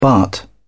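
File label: "baht", British English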